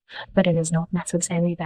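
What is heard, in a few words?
Voice Acting es, female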